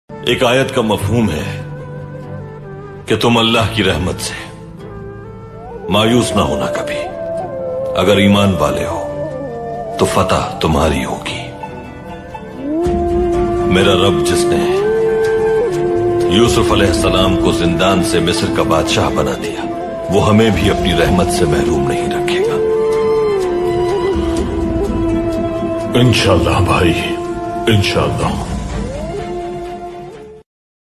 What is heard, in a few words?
islamic dialogue ringtone; Allah Ki Rahmat